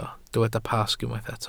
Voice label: "Pasg", Welsh

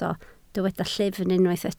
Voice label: "llyfn", Welsh

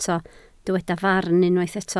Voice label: "farn", Welsh

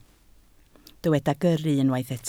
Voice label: "gyrru", Welsh

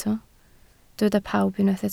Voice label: "pawb", Welsh

